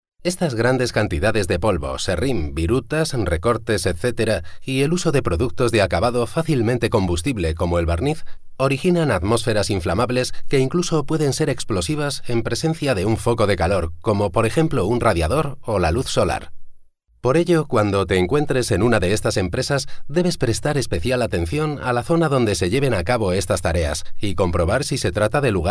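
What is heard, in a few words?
Spanish Spain, male, voice over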